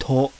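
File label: kana "TO", Japanese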